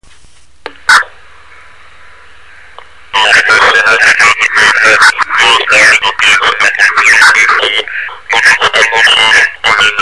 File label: MX COM Variable Split Band